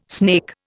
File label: "snake", Indian